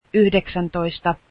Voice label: nineteen, finnish